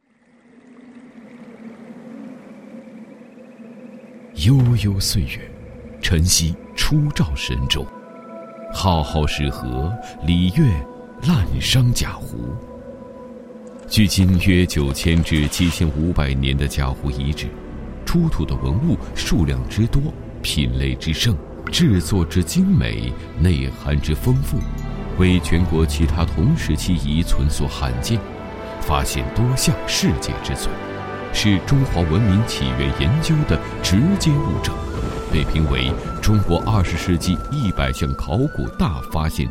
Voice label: 男 专题 遗址申报片讲解词; 贾湖

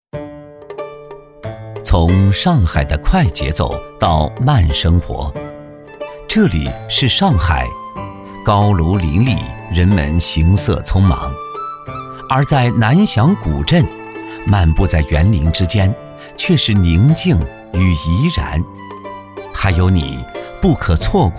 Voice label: 男 舌尖体 小笼 试音; 南翔

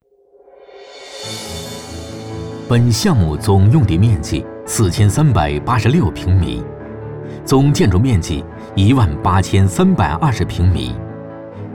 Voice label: 男, 工程汇报; 珑头湾旅游度假小镇

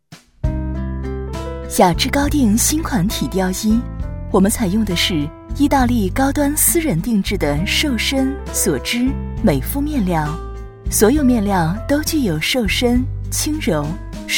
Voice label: 女 广告; 雅致高定

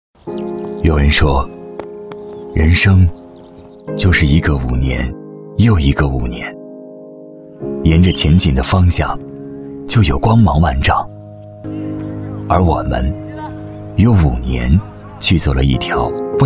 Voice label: 男 政府机关 温暖; 国网

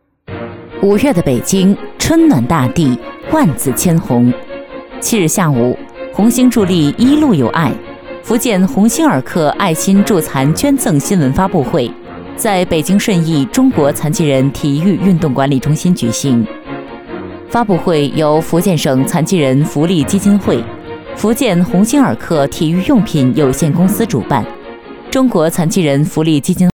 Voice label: 女-新闻资讯类; 基金会北京

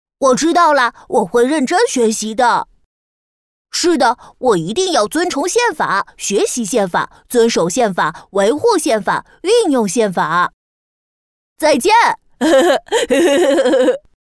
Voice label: 女, 男童 6 7岁

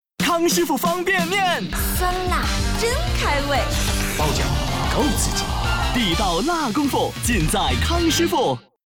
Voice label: 男, 方便面, 年轻活力; 康师傅

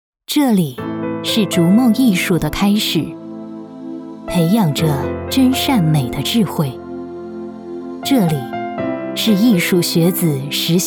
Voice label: 女, 宣传片, 端庄, 艺术类

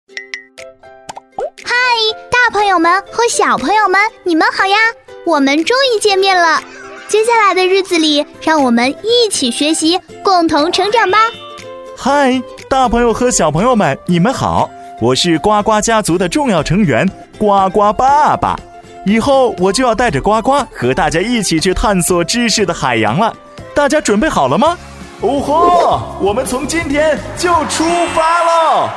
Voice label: 男 儿童故事

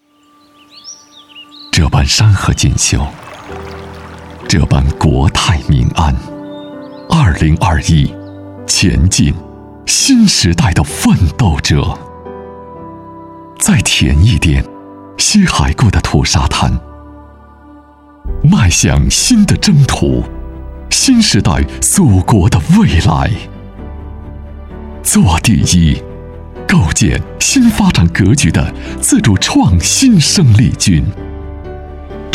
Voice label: 男 广告 公益广告; 时代楷模

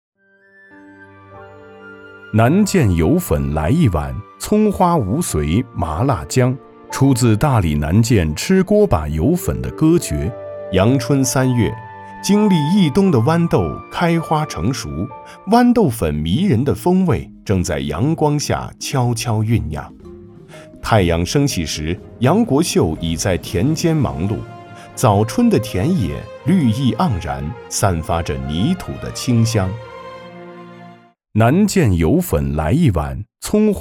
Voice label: 男 纪录片 舌尖感 南涧油粉

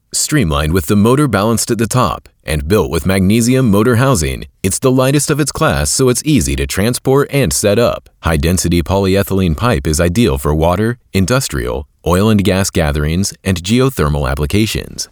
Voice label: 外籍英男, 干音, 宣传片

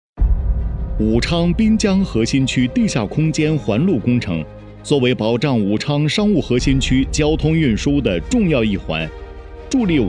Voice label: 男 专题 工程汇报

